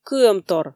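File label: "кыӧмтор", Komi, female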